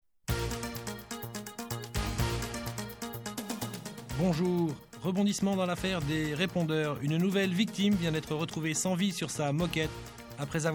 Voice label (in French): Message Vocal